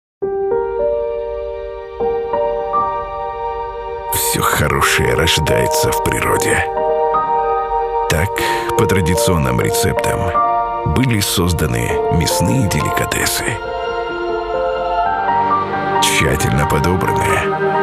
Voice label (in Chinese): 外籍俄语男 宣传片; Delicarne